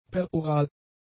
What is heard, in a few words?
"peroral", German; adjectives